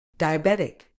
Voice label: "diabetic", uk

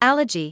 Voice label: "allergy", English

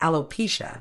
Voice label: "alopecia", Medical English

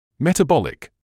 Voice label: "metabolic", English

